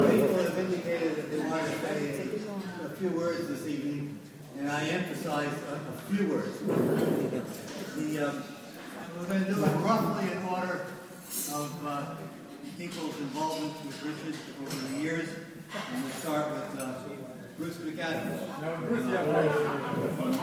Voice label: Conference Dinner Speech